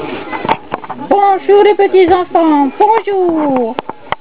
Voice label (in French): bonjour, madagascar